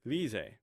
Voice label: "thize", Omaha

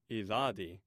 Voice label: "ithadi", Omaha

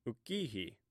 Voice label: "ukihi", omaha language

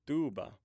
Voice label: "duba", Omaha

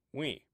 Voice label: "win", Omaha

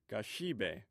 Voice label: "gage", Omaha

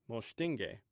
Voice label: "monshcinge", Omaha

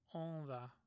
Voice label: "ontha", Omaha

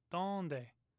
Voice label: "tonde", Omaha

